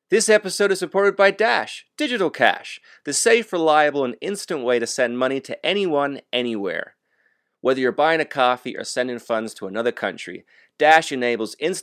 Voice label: Mid-roll Post-roll Speed and Efficiency US; Radiolab sponsorship